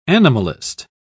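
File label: "animalist", British English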